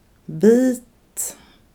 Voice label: "bite" damped